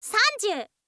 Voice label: "sanzyuu", info girl voice